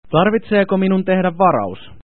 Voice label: Finnish; Lingo